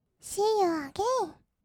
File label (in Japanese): しーゆーあげいん, kawaii voice; ASMR; 1200 kawaii voice!!; 音ゲー